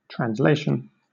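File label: "translation", British English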